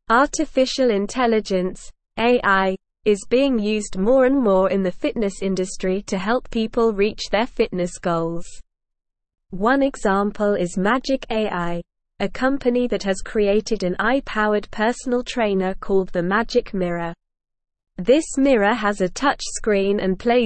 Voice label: English SLOW Reading; English Newsroom; AI in Fitness Personalized Training or Human Connec

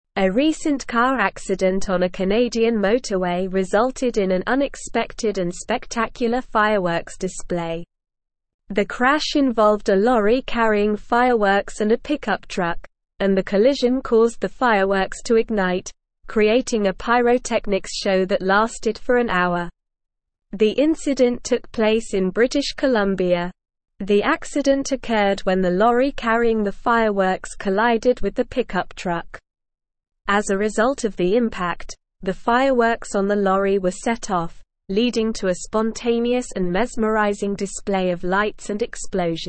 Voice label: English Advanced SLOW Reading; English Newsroom; Car Crash Ignites Fireworks Creates Spectacular Show

